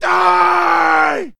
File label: man insult; Mount&Blade Warband